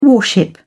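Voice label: "warship", British English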